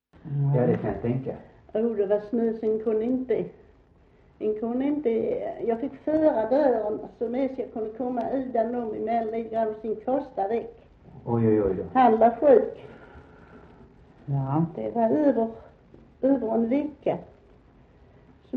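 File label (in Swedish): Västra Torup Skåne, dialekter; Folkminnen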